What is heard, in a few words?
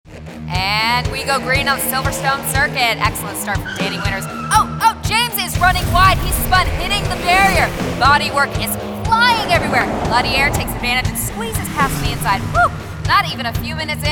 INTERACTIVE, en-GB